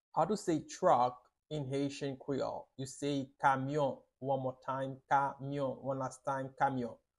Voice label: "Kamyon" Haitian Creole pronunciation by a private Haitian tutor; How to say Truck in Haitian Creole